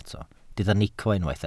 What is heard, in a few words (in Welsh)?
"nico", Welsh